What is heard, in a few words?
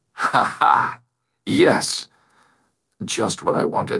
happy, speech; fertig classic games; cybro